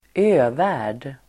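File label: "övärld", Swedish; Swedish pronunciation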